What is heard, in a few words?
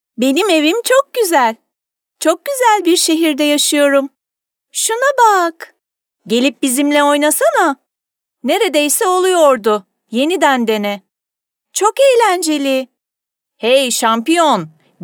fem children